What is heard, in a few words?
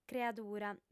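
"creadurea", female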